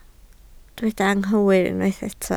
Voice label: "anghywir", Welsh

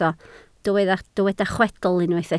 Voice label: "chwedl", Welsh

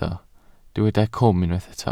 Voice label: "cwm", Welsh

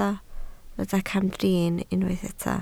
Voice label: "camdrin", Welsh

